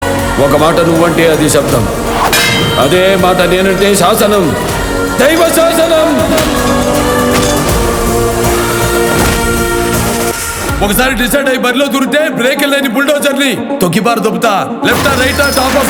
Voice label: Roar Dialogue Ringtone; Akhanda Trailer; Nandamuri Balakrishna